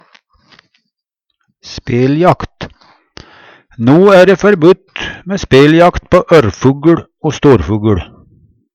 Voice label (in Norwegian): speljakt, Numedalsmål; Jakt, fangst, fiske